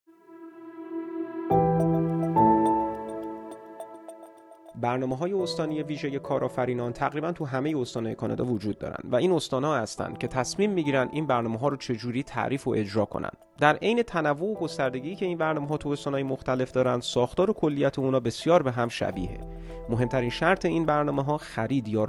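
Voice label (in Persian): Farsi, voices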